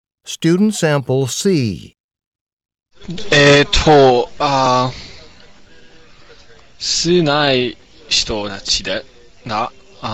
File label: japanese conversation; Conversation 1 Sample C (Score of 2)